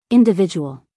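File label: "individual", American English, female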